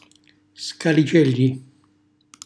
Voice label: "scalicelli", Calabrese; cucina calabrese